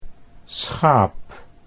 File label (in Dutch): "schaap", Dutch; 'sheep' (1)